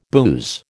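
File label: "booze", American English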